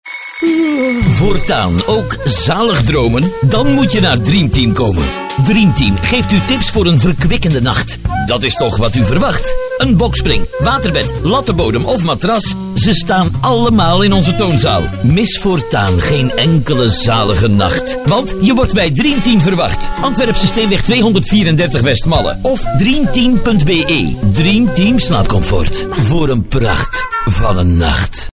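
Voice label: flemish male commercial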